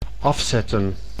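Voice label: "afzetten", Dutch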